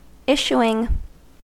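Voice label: "issuing", US English; pronunciations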